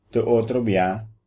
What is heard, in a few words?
goodbye papiamento